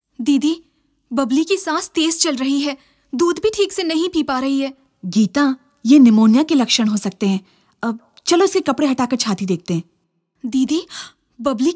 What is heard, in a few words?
Radio spot mother relative